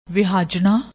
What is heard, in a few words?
"vihazna", Punjabi; ਵਿਹਾਜਣਾ